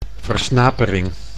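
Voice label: "versnapering", Dutch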